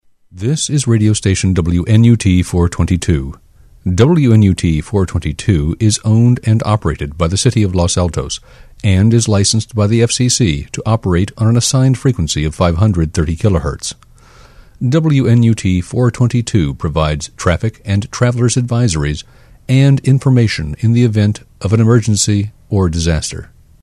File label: long ident